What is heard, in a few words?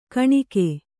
"ಕುನಿಕೆ", Kannada